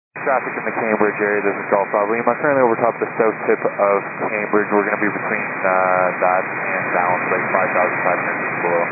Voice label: 122.925 MHz; scanner radio